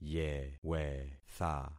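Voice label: "yewetha", concatenated syllables; Affixing experiment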